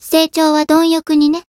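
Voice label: Voice growth, Japanese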